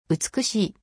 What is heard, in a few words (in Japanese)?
美しい